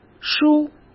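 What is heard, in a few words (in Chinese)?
"梳" Mandarin Chinese; hsk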